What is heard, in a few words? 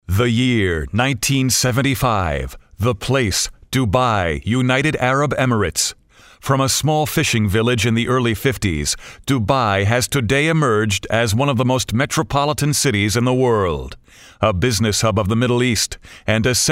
voiceover dubai